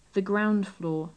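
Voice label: the ground floor, British English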